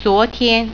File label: yesterday, mandarin